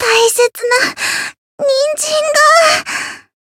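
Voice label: Voice win barely, japanese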